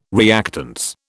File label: "reactance", British